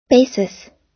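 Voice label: "basis", US English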